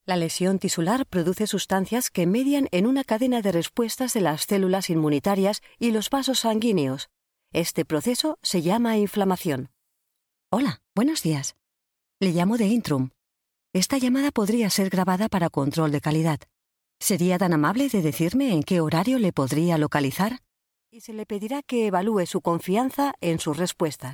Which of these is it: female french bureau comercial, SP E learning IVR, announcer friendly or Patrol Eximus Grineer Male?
SP E learning IVR